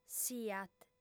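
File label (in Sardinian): "siat", female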